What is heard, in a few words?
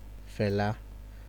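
"find", Nigerian Pidgin, male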